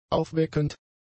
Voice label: "aufweckend", German; adjectives